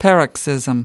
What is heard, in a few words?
"paroxysm", us